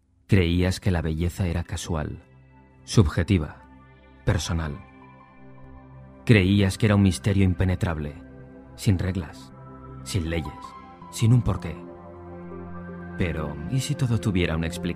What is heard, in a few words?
Commercials